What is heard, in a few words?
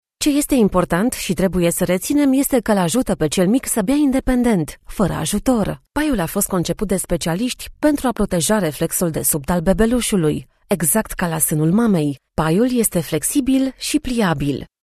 female, Romanian e-learning